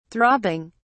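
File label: "throbbing", British English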